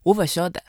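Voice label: shanghai, Chinese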